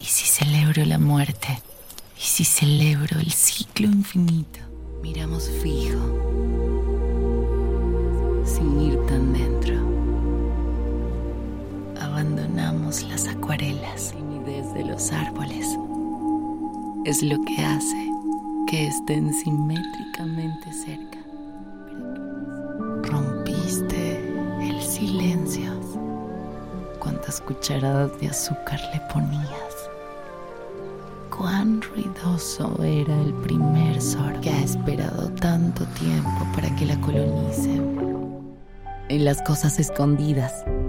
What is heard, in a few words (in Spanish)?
Storytelling